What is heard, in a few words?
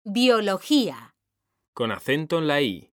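"BIOLOGÍA", Spanish, female; mekanta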